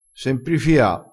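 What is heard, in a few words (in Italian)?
"semprifia", dialettale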